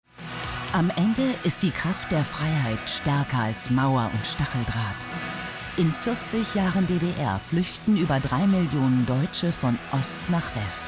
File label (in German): doku trailer; ddr